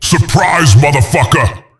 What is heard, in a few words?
surprise; cstrike; QuakeSounds